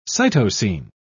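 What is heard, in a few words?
"cytosine", British English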